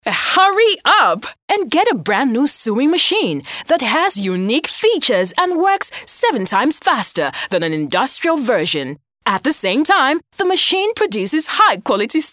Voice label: ENG female Positive

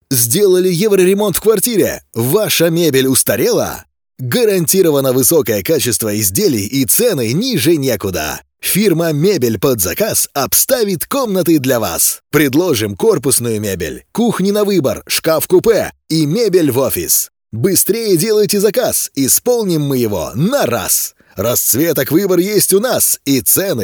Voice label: RUS male, Active

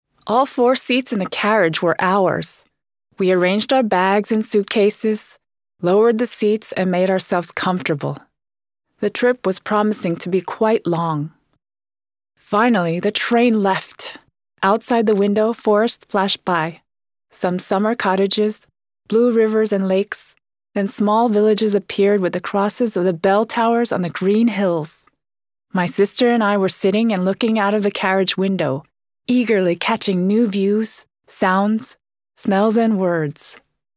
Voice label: ENG female Casual

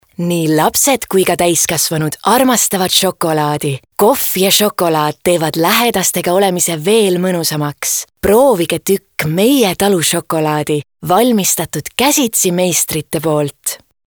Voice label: EST female, Sweet

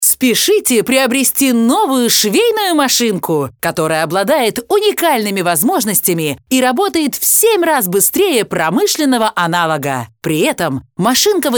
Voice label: RUS female Active

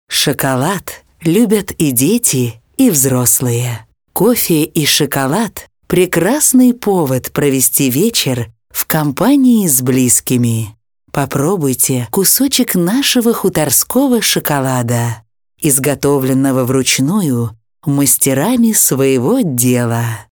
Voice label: RUS female Sweet

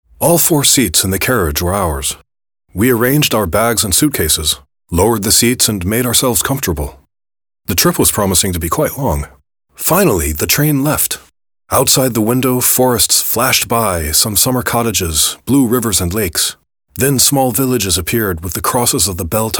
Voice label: ENG male, Casual

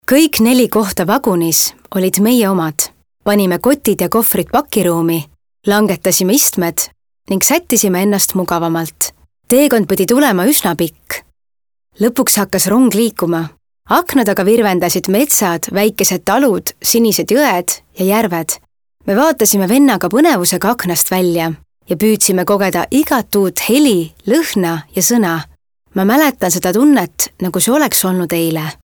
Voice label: EST female, Casual

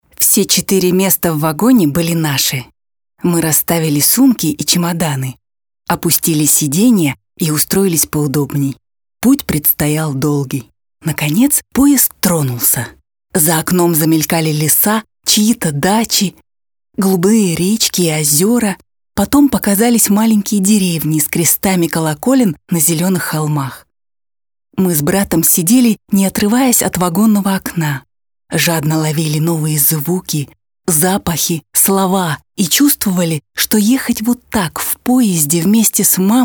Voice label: RUS female, Casual

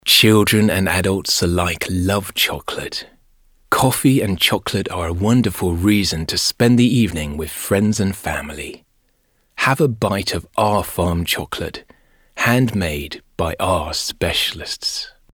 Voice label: ENG male Sweet

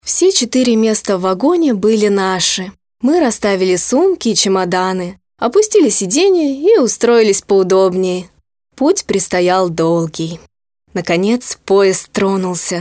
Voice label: RUS female, Casual

